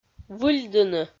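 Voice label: "выльдыны", Komi, female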